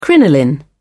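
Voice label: "crinoline", British English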